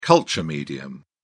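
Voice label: "culture medium", British English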